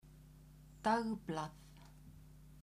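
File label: "dagblad", Icelandic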